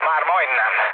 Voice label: near waypoint, mood low, speech hun; War Thunder